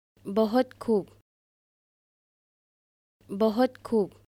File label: Well done Hindi